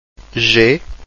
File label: g soft, Portuguese alphabet